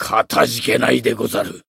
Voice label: Voice healed jp; cyrus